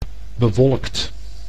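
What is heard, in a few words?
"bewolkt", Dutch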